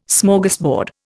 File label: "Smorgasbord", Indian